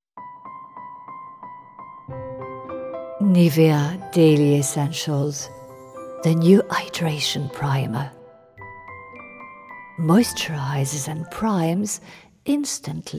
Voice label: English; Nivea